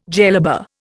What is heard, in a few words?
"jellaba", Indian